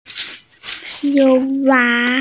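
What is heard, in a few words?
"frog", Mandarin Chinese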